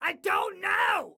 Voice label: Team Dont Know, voicepacks Excited Guy